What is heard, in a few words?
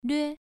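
"lüe", Mandarin Chinese syllable, first tone; pronunciation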